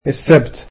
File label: "Saturday", egyptian